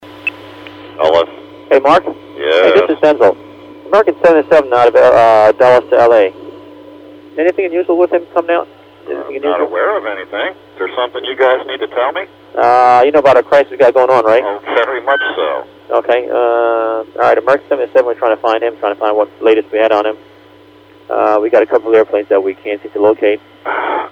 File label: Conversation; Herndon Dulles AA 77